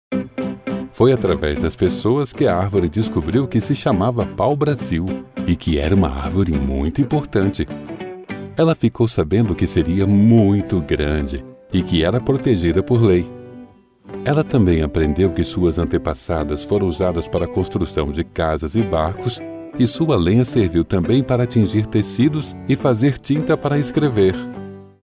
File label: portuguese brazilian narration; Locutor brasileiro, minha língua nativa é o português brasileiro